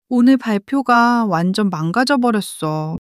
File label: sadness; monologue